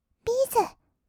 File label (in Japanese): "ピース", kawaii voice; 1200 kawaii voice!!; 色々なワンショット